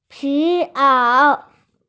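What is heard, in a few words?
"leather jacket", Mandarin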